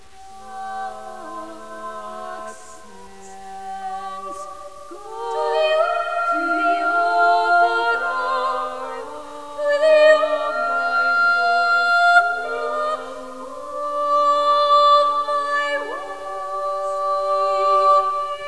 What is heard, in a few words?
accents